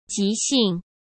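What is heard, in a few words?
"急性", Mandarin Chinese; hsk; chinese flashcards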